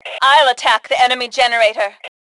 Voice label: Self Attack Generator, Female; T2 Female 01